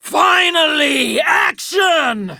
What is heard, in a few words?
Enemy Spotted Female